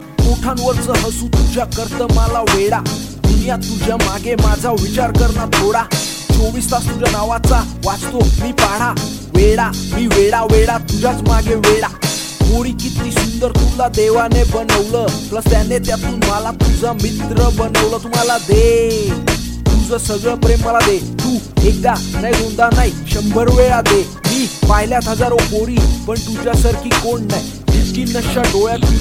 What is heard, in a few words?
Marathi Rapper